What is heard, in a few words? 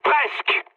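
bomb failure, mood high, French; War Thunder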